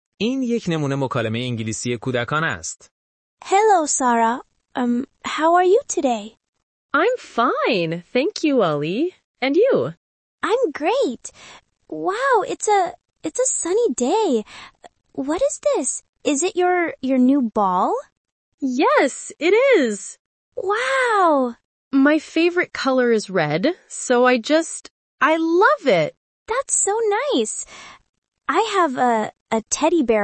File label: Lets Play Childrens English Conversation